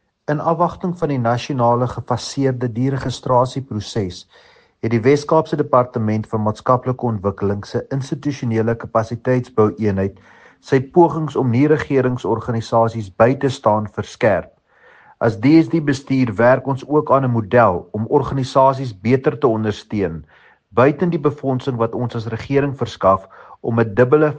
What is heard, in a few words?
afrikaans; minister londt; DSD continues to assist NPOs as deregistration for non-compliance begins